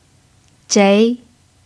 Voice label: "zhei2", Mandarin pinyin

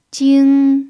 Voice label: "jing1", Mandarin pinyin

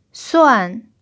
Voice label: "suan4", pinyin

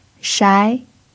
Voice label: "shai2", pinyin

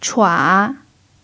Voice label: "chua3", Mandarin pinyin

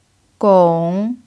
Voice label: "gong3", Mandarin pinyin

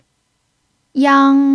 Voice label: "yang1", Mandarin pinyin

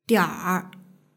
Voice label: "dianr", Mandarin; shopping

